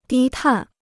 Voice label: "低碳", Chinese